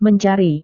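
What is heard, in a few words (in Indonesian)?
"mencari", Indonesian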